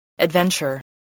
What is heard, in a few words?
"adventure", English voice